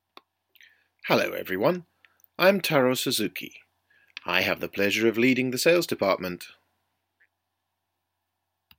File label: toast speech